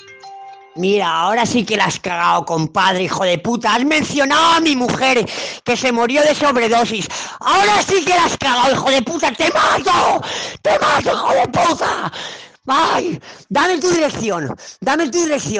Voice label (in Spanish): te mato te matooo mujer sobredosis